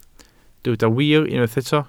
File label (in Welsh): "wir" Welsh